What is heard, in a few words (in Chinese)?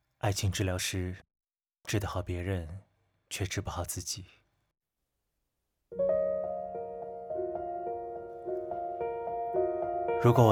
念白